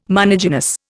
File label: "monogynous", Indian